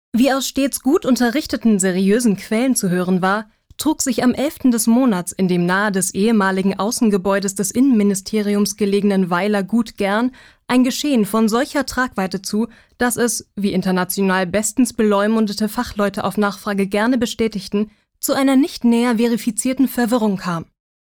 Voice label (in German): Trocken Neutral